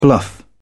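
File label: "bluff", British English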